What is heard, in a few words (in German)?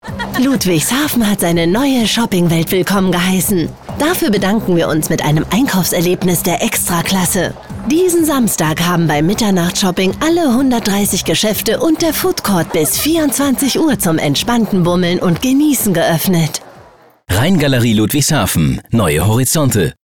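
off, sprecher; LOFT VOICES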